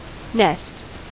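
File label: "nest", US English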